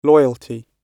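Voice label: "loyalty", British English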